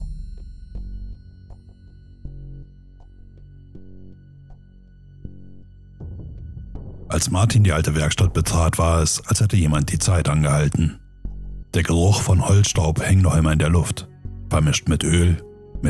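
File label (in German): Hörbuch; Sprecherprofil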